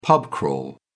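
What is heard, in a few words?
"pub crawl", British English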